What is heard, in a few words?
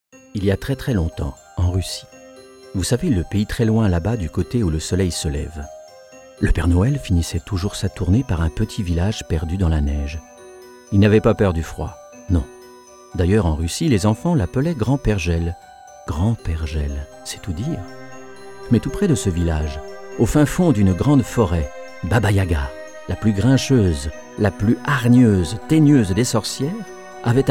narration